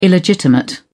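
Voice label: "illegitimate", British English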